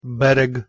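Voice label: "bherh1g", Proto-Indo-European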